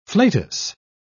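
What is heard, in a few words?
"flatus", British English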